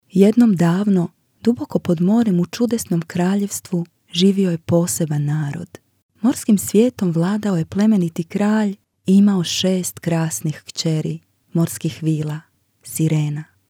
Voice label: story kids, croatian